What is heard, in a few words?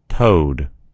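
"toad", American English; gcompris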